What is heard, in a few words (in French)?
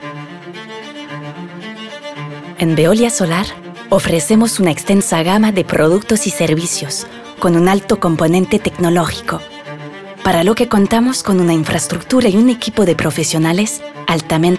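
VOIX OFF (Langue espagnole) - DOUBLAGE, comédienne; Space X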